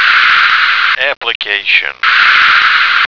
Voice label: "application", American